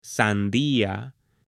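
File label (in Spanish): "sandia" Spanish, female; ALIMENTOS; AppLenguaje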